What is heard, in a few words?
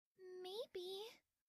anime girl maybe